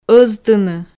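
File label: "ӧзтыны", Komi, female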